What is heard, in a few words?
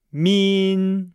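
"min", Chinese; Nihao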